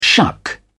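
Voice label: "shuck", British English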